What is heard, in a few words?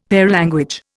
"paralanguage", Indian; Dictionary